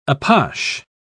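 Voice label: "apache", British English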